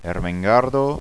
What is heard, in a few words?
"ermengar", Occitan